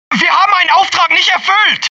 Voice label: german failure, German; mohaa

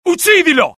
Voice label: alert, dialogue IT; mohaa; attack

